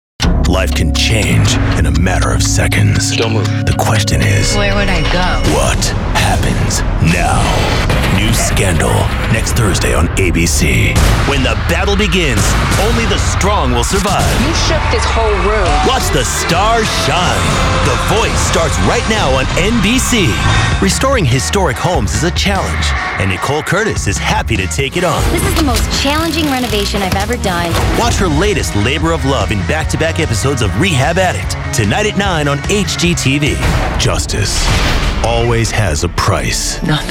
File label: promo, men